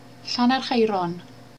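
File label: "Llanerchaeron", Welsh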